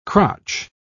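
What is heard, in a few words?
"cratch", English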